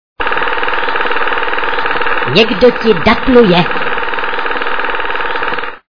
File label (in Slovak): sms hlásky cz